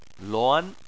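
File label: "luan", Chinese, second tone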